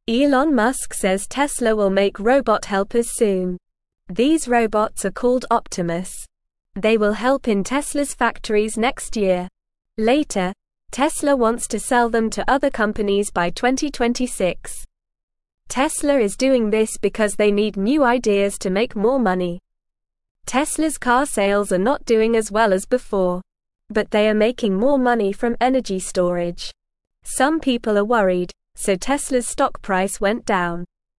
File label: English Newsroom Beginner NORMAL Reading; Teslas New Robot Helpers Will Come Soon